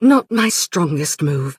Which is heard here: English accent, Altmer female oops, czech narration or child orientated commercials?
Altmer female oops